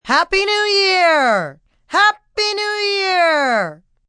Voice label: New Year Twice F, female; Holiday Sound Effects; Occasion, event